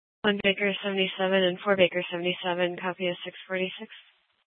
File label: Superstition Dispatch, Mesa PD